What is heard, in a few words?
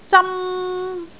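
"zam1", Cantonese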